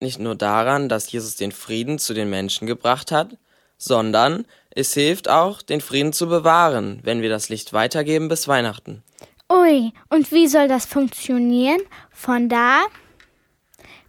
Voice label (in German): hoerspiel